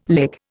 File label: "lick", Indian